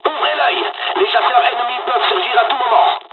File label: dialog, dialogs french; War Thunder